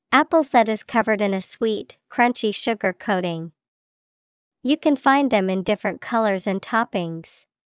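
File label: candy apple, English vocabulary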